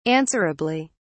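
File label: "answerably", British English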